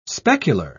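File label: "specular", English, British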